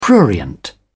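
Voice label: "prurient", British English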